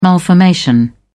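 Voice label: "malformation", English, uk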